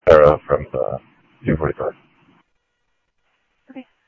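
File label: police radio scanner traffic, Mesa Simulcast; MESA PD 1 Fiesta; MesaPoliceDep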